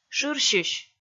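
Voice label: "шырсьысь", Komi, female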